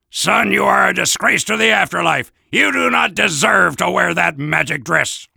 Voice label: Soldier taunts; Son, you are a disgrace to the afterlife. You do not deserve to wear that magic dress.